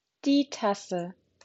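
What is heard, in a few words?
"Tasse", German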